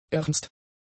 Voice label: "ernst", German; adjectives